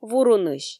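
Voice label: "вурунысь", Komi, female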